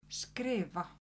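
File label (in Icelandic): "skrifa", Icelandic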